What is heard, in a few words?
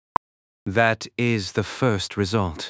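speaker male fast mid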